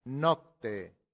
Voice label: "nocte", latin; santillana

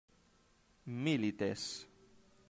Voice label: "milites", latin; santillana

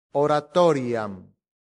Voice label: "oratoriam", latin; santillana

